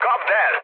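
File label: attack position, mood low, Hungarian; War Thunder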